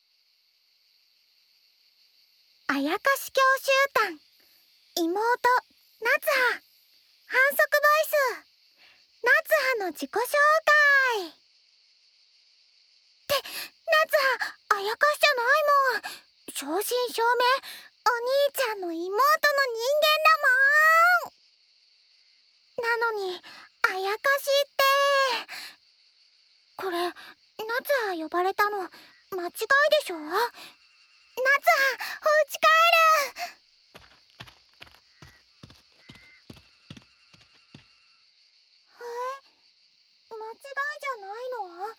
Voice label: 自我介绍, ASMR

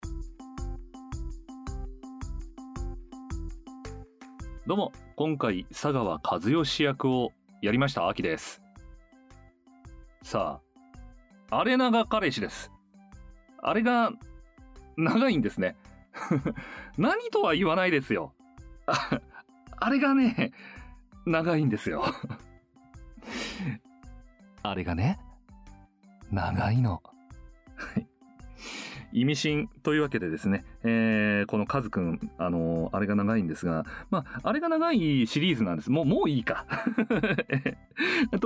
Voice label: 声优自由谈话

